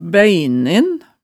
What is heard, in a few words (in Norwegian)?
"bæinen", hemsedalsmaalet tale